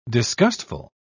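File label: "disgustful", British English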